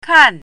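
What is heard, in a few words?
"kan4", Chinese pinyin, fourth tone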